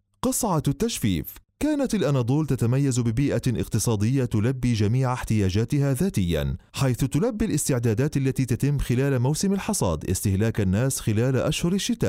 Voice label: arabic